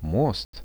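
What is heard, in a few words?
"bridge", Russian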